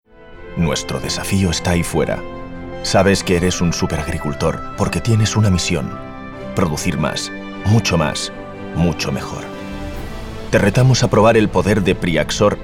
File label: male spanish farm comercial; ideasonora